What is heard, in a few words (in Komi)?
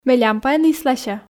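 ме лямпаӧн ислася, Komi, female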